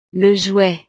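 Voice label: "le jouet", French; navidad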